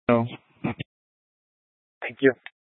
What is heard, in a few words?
Incident Tac 3, scanner